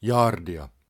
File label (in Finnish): Yards, Finnish, male; Opas